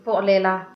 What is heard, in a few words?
"meet", tigrinya